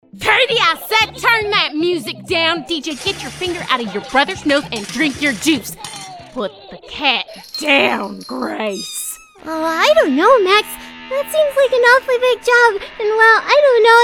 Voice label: animation nonbinary character